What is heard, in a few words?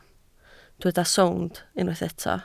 "sownd", Welsh